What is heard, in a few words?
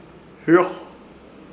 "Hɨroj" liviano, Ishir Chamacoco